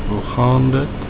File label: how are you, Afrikaans